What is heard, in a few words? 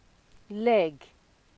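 "leg", Nigerian Pidgin, female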